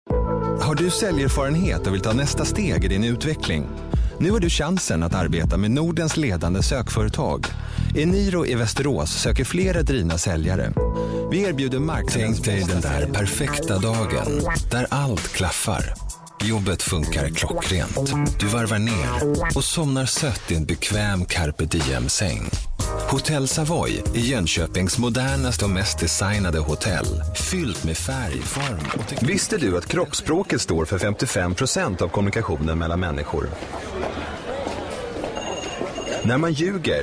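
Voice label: swedish male commercial; voice demos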